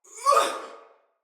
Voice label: Block Soldier Female; Battle; Barks; Dialog

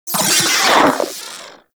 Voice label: Vocal Death; Warframe; Corpus; Arisoctrats; Mini Moa

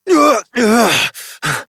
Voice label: Horse Mount Adult, Dialog; Duviri

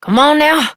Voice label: Horse Start Adult; Duviri

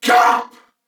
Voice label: Attack, Corpus Venus Male

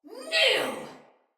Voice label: Attack Sinecure Soldier Female

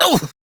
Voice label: Pain

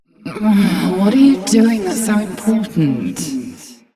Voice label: Ambient Barks, en

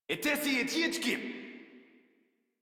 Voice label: Player Spotted Modular Corpus Male; Dialog; Barks; Battle; Warframe

